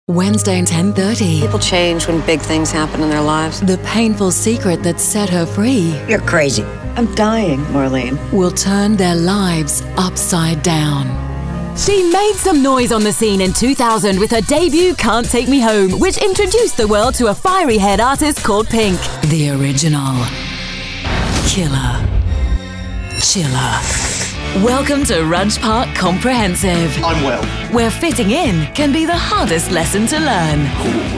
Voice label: Promo